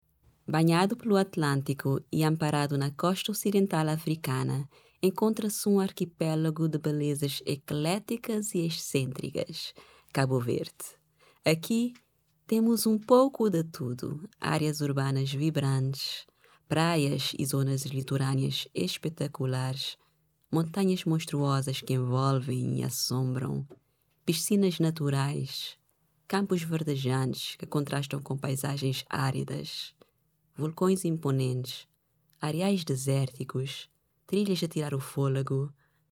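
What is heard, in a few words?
Cape Verdean Creole female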